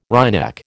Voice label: "wryneck", American

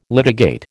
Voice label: "litigate", American

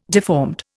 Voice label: "deformed", Indian

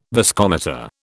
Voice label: "viscometer", British

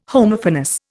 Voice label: "Homophonous", Indian

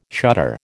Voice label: "shutter", American

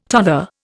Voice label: "tother", Indian

